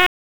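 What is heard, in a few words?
Raleigh Police Special Operations Tactical, p25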